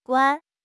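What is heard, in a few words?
"guan1", Mandarin Chinese; HSK3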